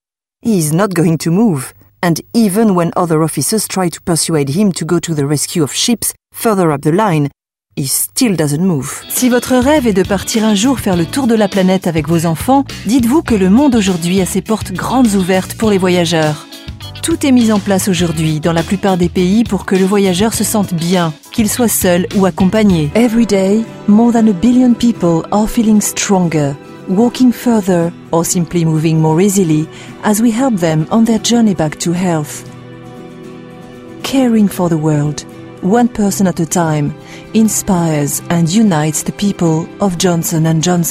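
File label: FR Narration, French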